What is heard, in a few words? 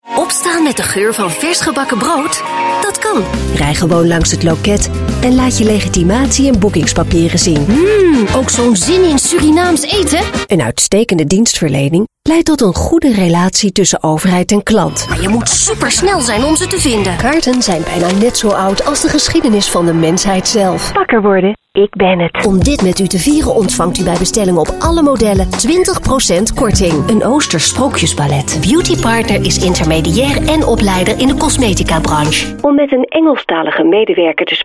Dutch Female